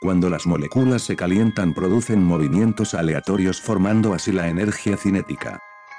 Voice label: Vocalizer Expressive Jorge Premium High 22kHz